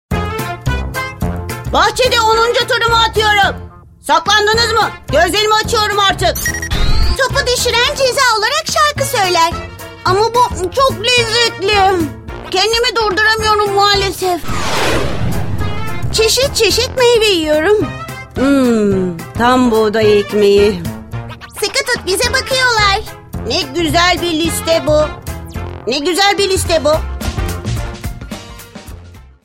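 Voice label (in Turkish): TR ANM, Seslendirme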